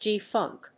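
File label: "G-Funk", English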